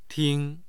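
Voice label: "ting1", Mandarin Chinese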